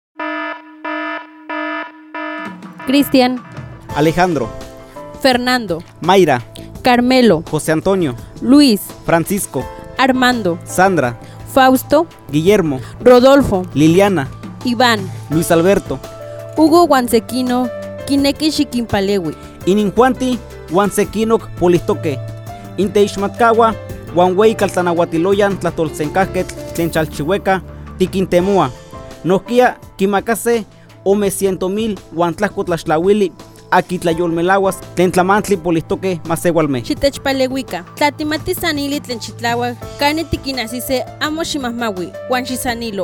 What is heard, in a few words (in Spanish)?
Náhuatl de la Huasteca Veracruzana; FI Chicontepec